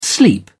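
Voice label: "sleep over", British English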